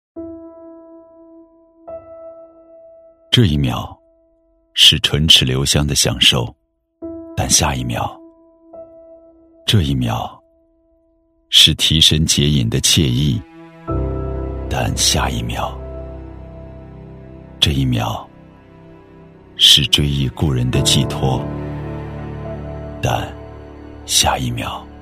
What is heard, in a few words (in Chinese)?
男 走心宣传 深沉叙述; 森林防火宣传片; 质感磁性